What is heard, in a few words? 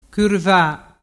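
"curva", Vernazzese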